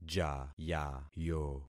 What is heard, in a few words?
"jayayo", concat syllables; Affixing experiment